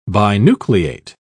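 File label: "binucleate", English, uk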